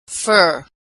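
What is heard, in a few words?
"fur", American English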